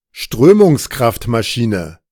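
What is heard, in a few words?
"Strömungskraftmaschine", German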